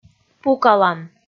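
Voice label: "пукалан", Komi, female; kpv audio dict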